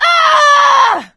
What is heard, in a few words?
battle cry, survivor voice; Left 4 Dead 2; producer